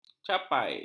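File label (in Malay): "capai", Malay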